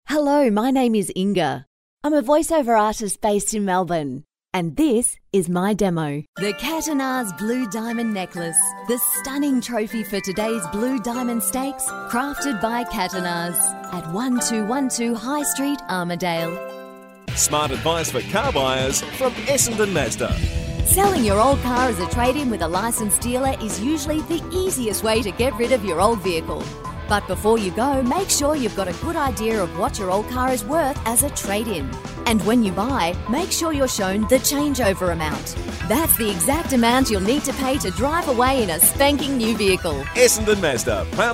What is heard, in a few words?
english australian commercial; english australian voice over artists